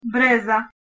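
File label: "breza", Bosnian-Croatian-Montenegrin-Serbian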